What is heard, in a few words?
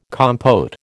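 "compote", American English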